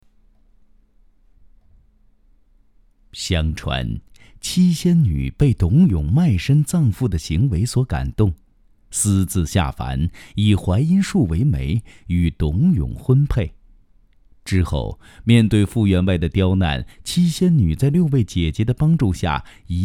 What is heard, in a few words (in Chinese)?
男 神话故事类; 天仙配新传